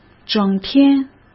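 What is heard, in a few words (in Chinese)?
"整天" Mandarin Chinese; hsk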